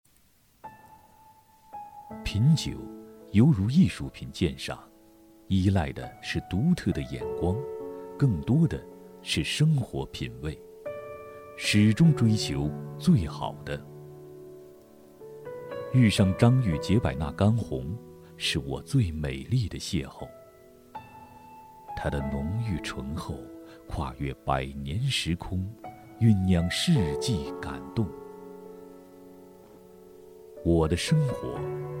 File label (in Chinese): 男 广告 干红葡萄酒; 张裕解百纳干红葡萄酒